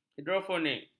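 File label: "hidrofonik", Malay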